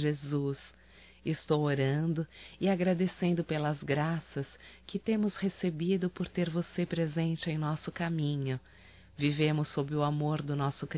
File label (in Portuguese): Natal e Ano Novo Irmã Feminino; Telemensagens 2017